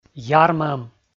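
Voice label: "ярмӧм", Komi, male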